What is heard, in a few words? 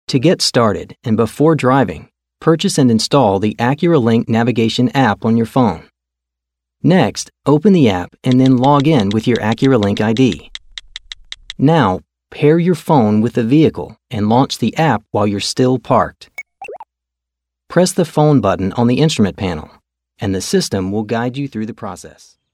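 English US, male, e learning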